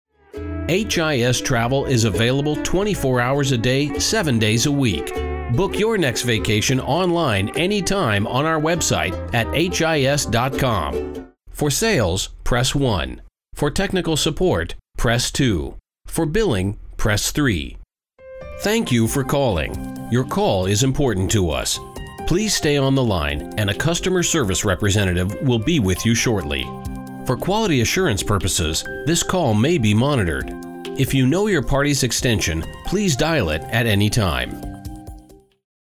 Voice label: IVR